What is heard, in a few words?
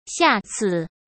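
"下次", Mandarin Chinese; chinese-flashcards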